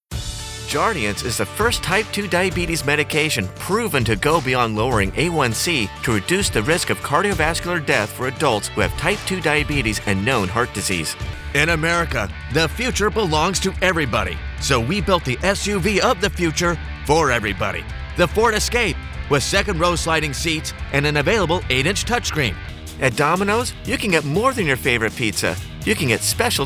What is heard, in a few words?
Commercial short